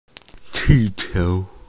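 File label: "qi4 qiu2", Mandarin Chinese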